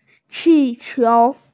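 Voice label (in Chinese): "qi4qiu2", Mandarin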